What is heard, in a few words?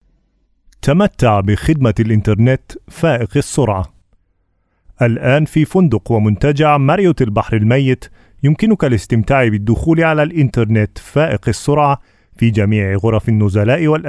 Arabic, Arabic Male